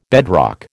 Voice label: "bedrock", American